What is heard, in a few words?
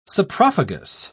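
"saprophagous", British English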